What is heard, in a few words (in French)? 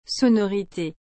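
"sonorite", French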